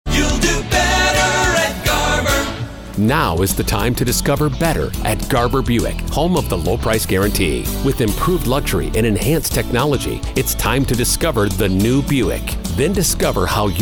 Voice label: Radio; Garber Buick